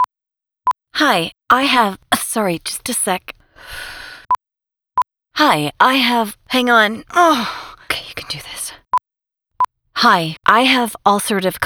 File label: Radio PSA; Gutsy Walk; Crohns and Colitis